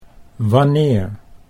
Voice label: "wanneer", Dutch

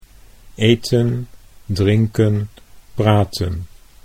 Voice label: "eten drinken", Dutch

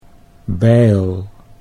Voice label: "bijl", Dutch

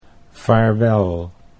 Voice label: "vaarwel", Dutch

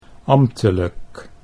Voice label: "ambtelijk", Dutch

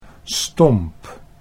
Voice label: "stomp", Dutch

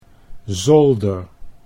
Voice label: "zolder", Dutch

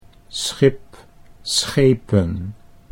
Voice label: schip schepen, Dutch

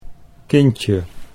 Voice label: "kindje", Dutch

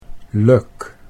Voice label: "lijk", Dutch

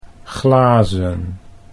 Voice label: "glazen", Dutch

